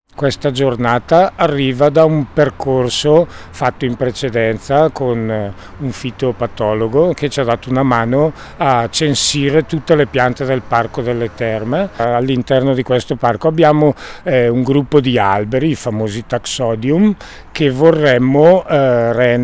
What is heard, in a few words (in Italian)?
intervista breve; day nel parco delle terme di boario